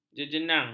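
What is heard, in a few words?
"jejenang", Malay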